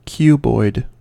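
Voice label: "cuboid", American English; pronunciations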